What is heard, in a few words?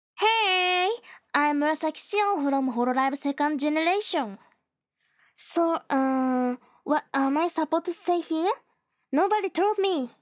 Starting Voice Self-Introduction; Murasaki Shion